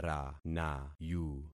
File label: "ranayu", concat syllables; Affixing experiment